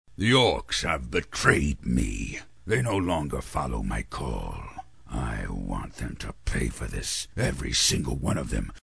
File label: Battle Commander, Evil & Gruff; animation